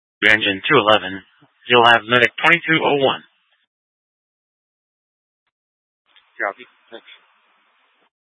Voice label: Fire Departments Mesa Simulcast; TOPAZ

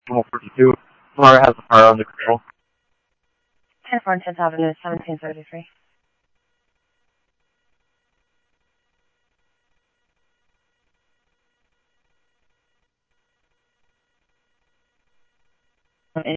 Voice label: Simulcast Police Dep, scanner audio trunk; Mesa Simulcast; MESA PD 1 Fiesta; MesaPoliceDep

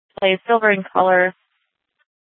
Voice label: Sheriff, scanner; Shrf Northeast

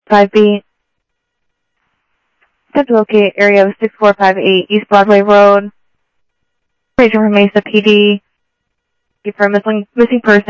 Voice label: South Scottsdale Sheriff, scanner audio

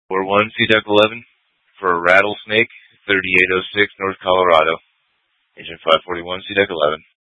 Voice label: FD Dpts VHF 156.240 Patch

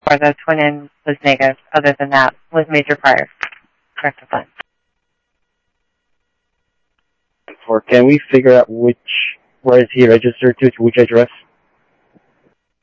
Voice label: Info Sheriff Common, scanner audio trunk; MCSO; ThompsonPeakScot

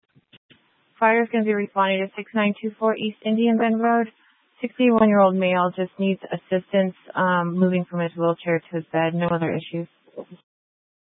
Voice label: Dispatch, scanner; Paradise Valley PD; H- Scottsdale

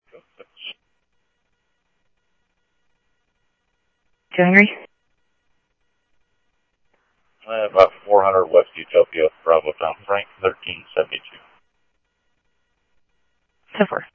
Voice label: Phoenix PD, scanner; ThompsonPeakHigh; PHOENIX PD-200 A

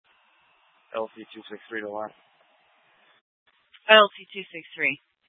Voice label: Fire Departments, scanner

